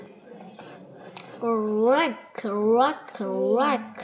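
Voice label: "lick", spoken word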